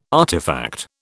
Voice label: "artifact", British